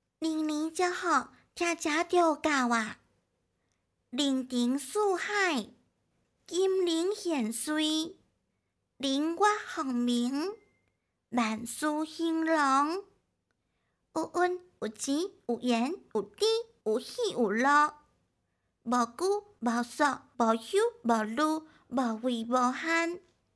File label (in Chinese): 闽南语 女 大音 闽南语 试音 龙年祝福 小女孩; 方言配音